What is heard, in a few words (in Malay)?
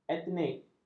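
"etnik", Malay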